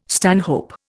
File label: "stanhope", Indian